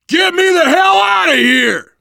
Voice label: call for rescue, survivor voice biker